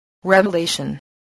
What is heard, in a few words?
"revelation", English